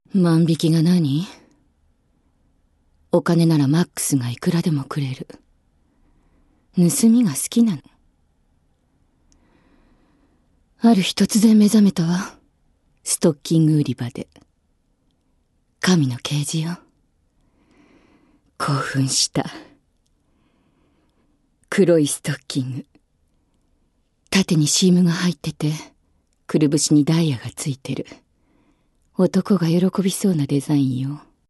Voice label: female